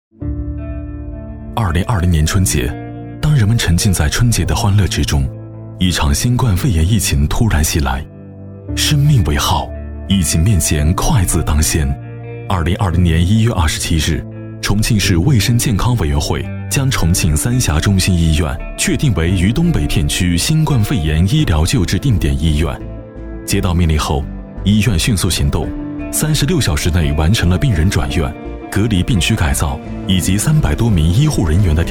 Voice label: male documentary narration